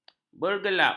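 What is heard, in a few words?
"bergelap", Malay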